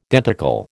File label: "denticle", American